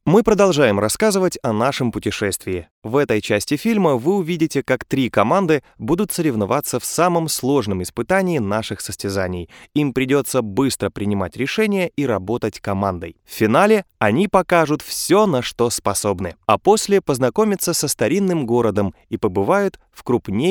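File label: film, Russian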